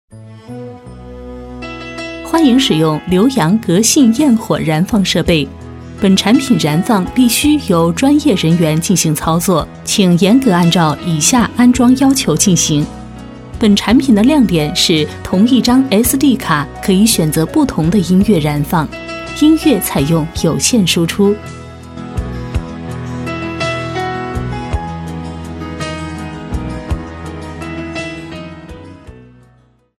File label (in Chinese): 多媒体 产品演示 视频操作